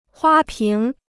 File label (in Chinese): "花瓶", Chinese